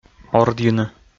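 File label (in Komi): "ордйыны", Komi, male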